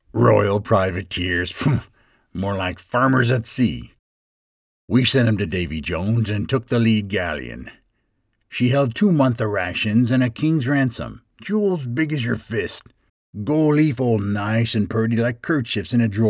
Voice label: pirate